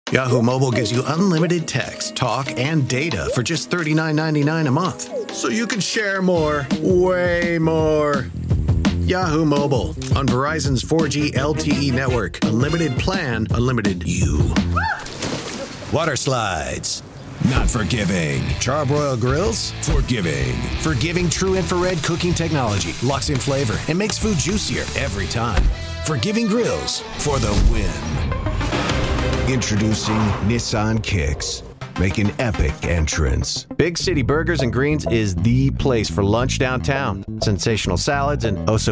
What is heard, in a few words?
Commercial